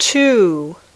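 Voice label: "two", English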